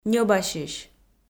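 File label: "ньӧбасьысь", Komi, female; audio dict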